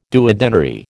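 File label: "duodenary", American English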